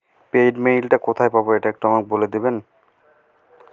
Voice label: voice message